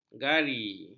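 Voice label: "gari", Malay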